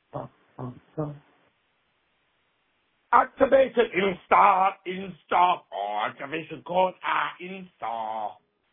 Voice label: Voicemail; activated install